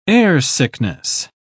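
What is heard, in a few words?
"airsickness", British English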